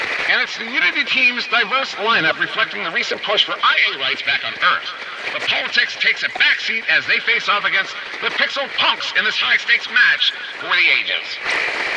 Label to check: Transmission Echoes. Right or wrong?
Right